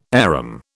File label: "arum", British